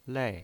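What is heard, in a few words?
"lei", pinyin, Mandarin Chinese, fourth tone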